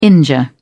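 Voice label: "injure", British English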